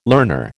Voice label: "learner", American